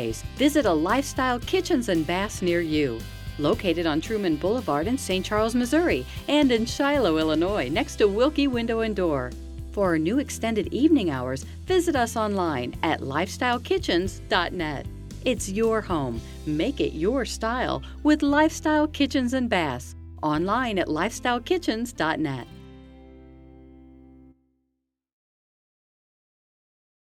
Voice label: cabinet testimonial; MidContinent